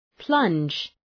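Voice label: "plunge", English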